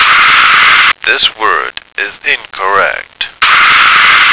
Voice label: "not a correct word try", American